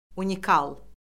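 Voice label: "unikal", Azerbaijani; Orfoqrafiya Lüğəti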